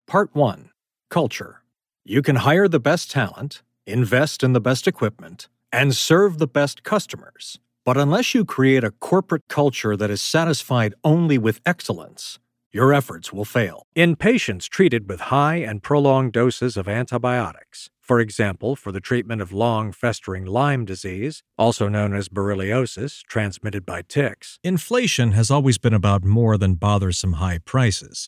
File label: Audiobook Non-Fiction Narration; Audiobooks; Capital G Productions LLC